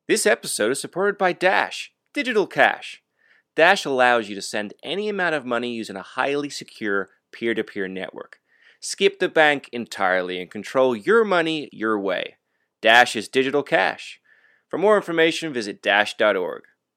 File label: Pre-roll Generic US; Pre-roll Generic (US final)